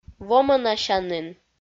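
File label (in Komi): "вомӧнасянін", Komi, female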